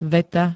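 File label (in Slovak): "veta", Slovak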